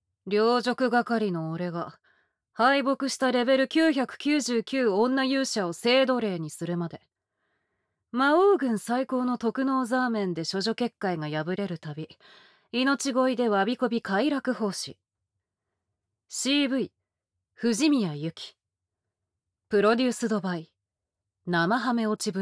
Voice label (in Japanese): タイトルコール, ASMR